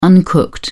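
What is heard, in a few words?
"uncooked", British English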